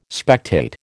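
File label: "spectate", American English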